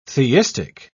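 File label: "theistic", British English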